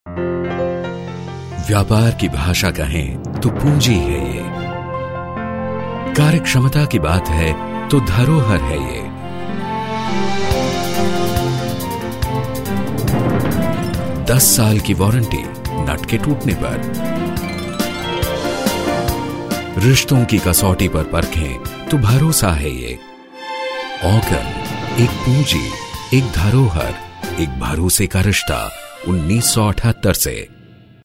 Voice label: Hindi, male voice

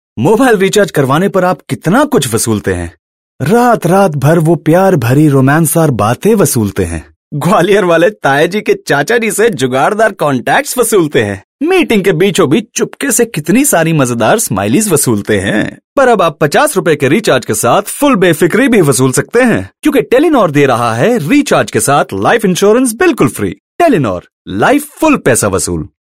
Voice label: Hindi, male voice; Telenor

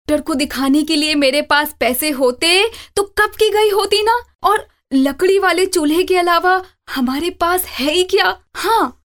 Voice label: female voice, girl

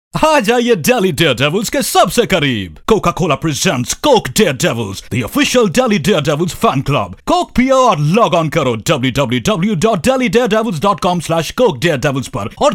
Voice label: male voice; coke

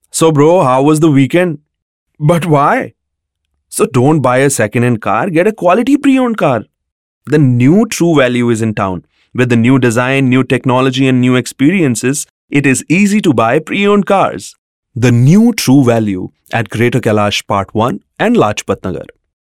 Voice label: English, male voice

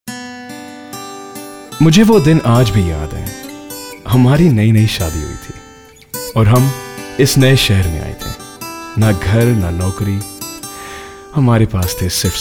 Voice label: Narration, male voice; ICICI

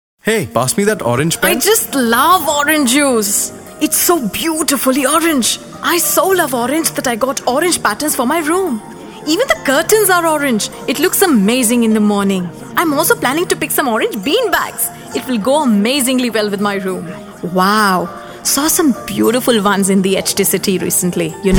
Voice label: Radio, female voice; HT City Orange Radio

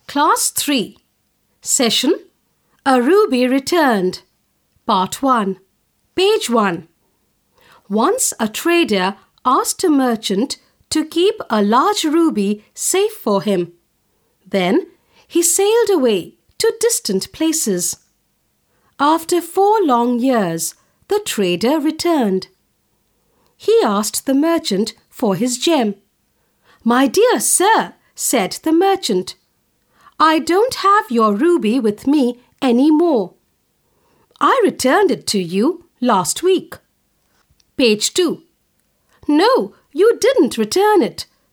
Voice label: e learning english, female voice

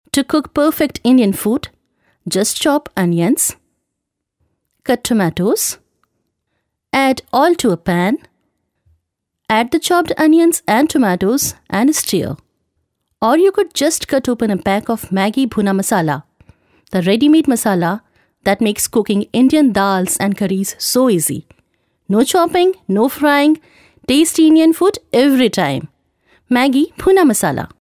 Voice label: FVO

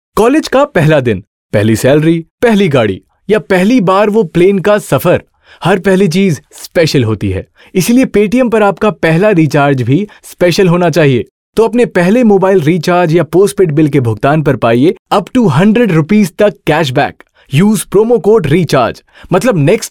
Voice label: Hindi, male voice

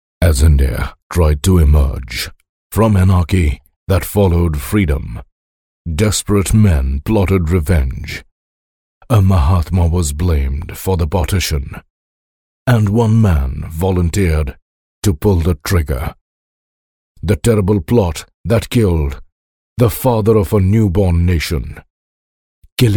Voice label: english, male voice

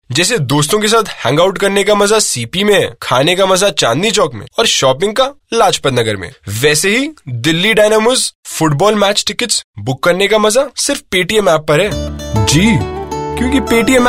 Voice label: Hindi, male voice

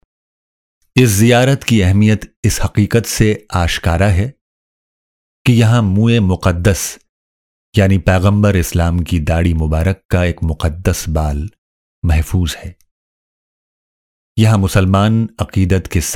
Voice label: male voice

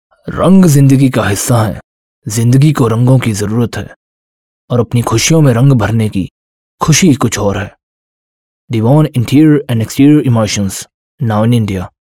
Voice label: Hindi, male voice

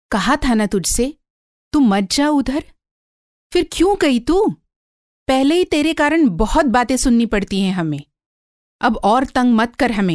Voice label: HINDI DRAMA, female voice

